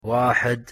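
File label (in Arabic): "واحد", Arabic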